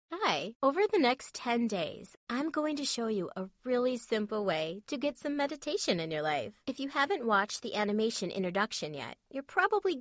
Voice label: meditation

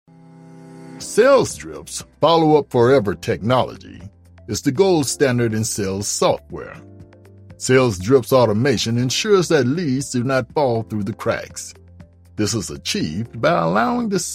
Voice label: explainer

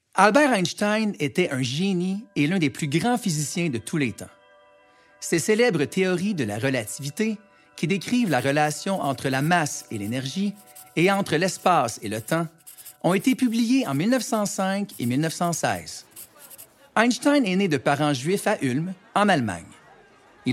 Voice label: narration

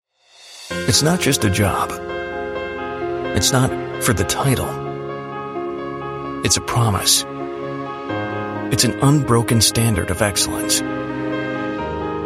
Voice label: commercial

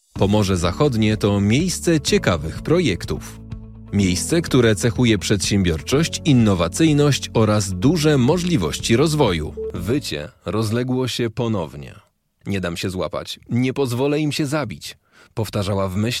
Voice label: polish commercial

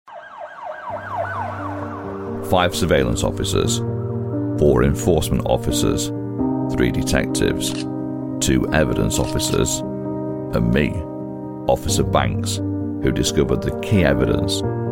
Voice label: police recruitment sfx vo

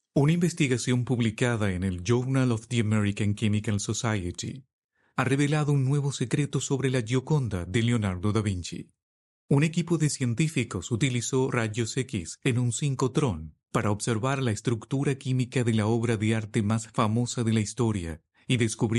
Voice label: documentary es history

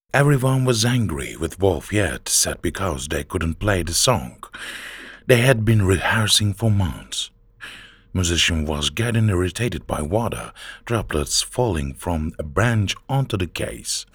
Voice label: english

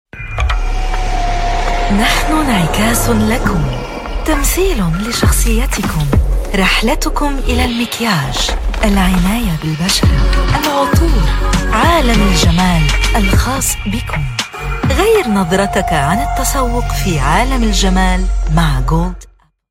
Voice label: ad; gold apple

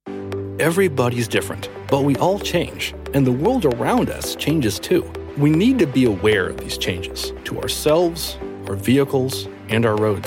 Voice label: e-learning

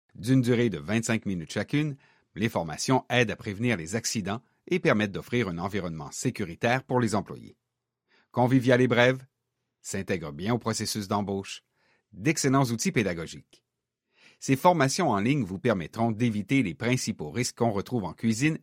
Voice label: corporate warm

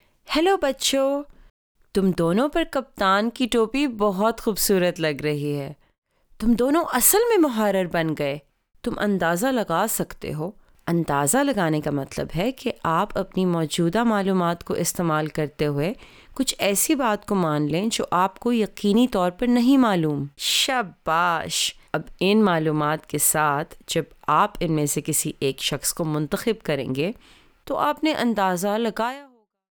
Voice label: character; susus

